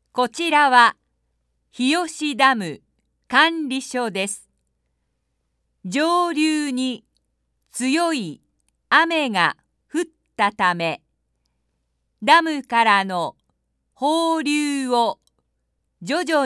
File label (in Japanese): HOUSOU, siren; hiyoshi